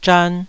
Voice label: "zhan2", taiwanese

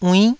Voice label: "uinn9", taiwanese

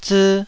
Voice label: "zii2", Taiwanese syllable

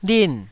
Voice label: "lin4", Taiwanese syllable

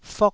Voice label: "fok1", taiwanese syllables

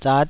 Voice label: "zat5", taiwanese syllables

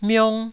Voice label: "miong1", Taiwanese

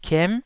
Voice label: "kiem9", taiwanese syllables

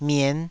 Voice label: "mien5", Taiwanese

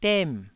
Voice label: "dem4", Taiwanese syllable

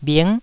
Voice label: "bhing9", taiwanese syllables; 台語音節發音檔案

